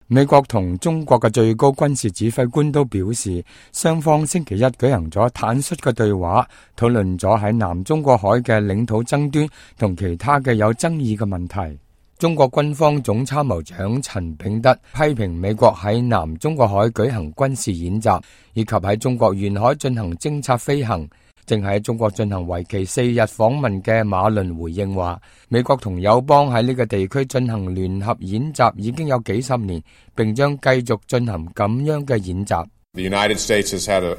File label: cantonese, news